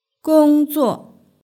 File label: "gongzuo", Chinese; hsk6